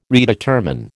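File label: "redetermine", American English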